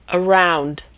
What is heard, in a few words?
"around", pronunciation